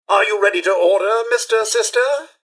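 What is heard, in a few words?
hello, robot; rivetcity; mrhandy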